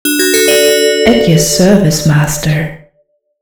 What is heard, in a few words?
female startup guy